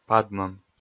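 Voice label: "падмӧм", Komi, male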